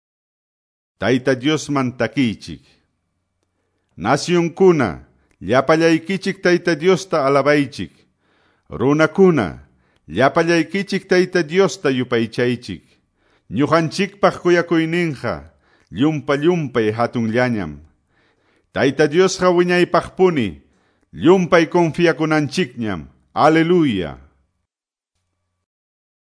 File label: salmos, quechua ayacucho